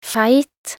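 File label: "feit", Norwegian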